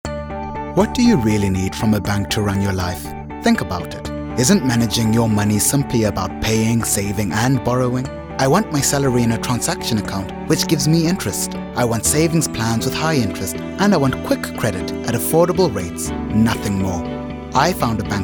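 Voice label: english south african male commercial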